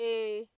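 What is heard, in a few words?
"a", Nigerian Pidgin, female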